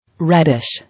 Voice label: "Reddish", English